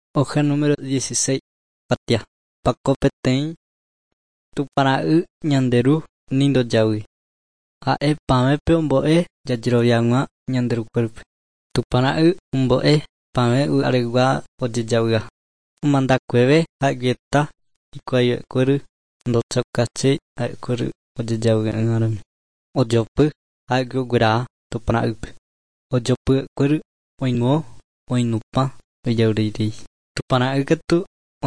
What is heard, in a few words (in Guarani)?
guarani mbya; good news and words of life; bible